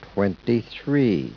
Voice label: SPEECH ENGLISH